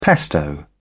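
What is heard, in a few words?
"pesto", British English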